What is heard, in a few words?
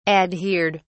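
"adhered", British English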